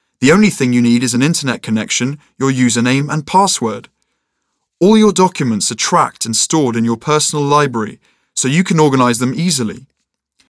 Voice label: Engl; casting de voix-off; taurus-studio